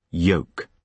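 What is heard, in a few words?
"yoke", British English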